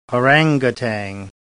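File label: "orangutan", English, British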